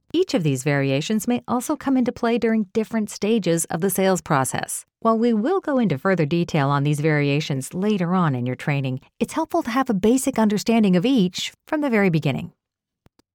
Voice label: Sales Educational Conversational